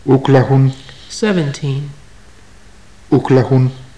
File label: numbers, maya